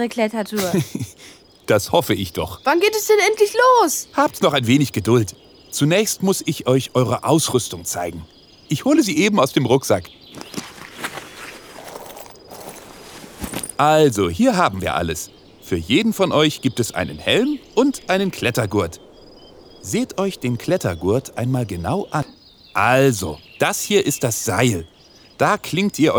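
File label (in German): Beppo Bergführer naiv kindlich rein, Hörspiel; Flo, das kleine Feuerwehrauto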